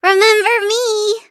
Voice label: hit, en; Cookie Run

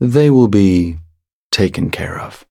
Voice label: battle, en; cookie run

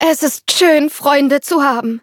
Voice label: battle de; cookie run

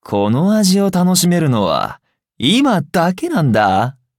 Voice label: levelup ja; cookie run

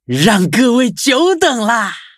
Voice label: loading, zh-hant; cookierunwiki

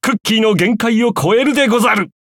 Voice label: level up, ja; cookie run

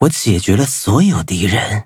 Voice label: victory, zh-hant; cookie run

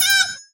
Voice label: CheerLeader Call Friends

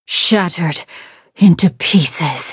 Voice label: defeat, en; cookie run

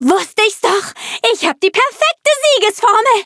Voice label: victory, de; Cookie Run

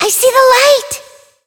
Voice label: hit, en; Cookie Run

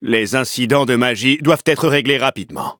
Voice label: levelup, fr; Cookie Run

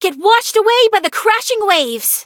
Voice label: battle en; cookie run

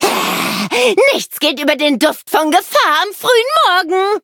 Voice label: loading, de; cookie run

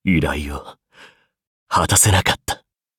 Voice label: defeat ja; cookie run

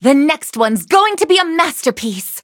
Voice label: battle en; cookie run

